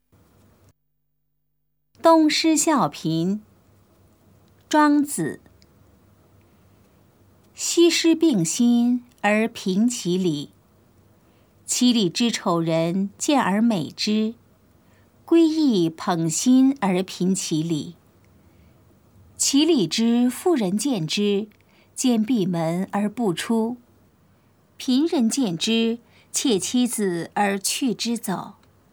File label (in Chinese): putonghua; 普通話; 東施效顰